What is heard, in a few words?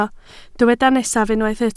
"nesaf", Welsh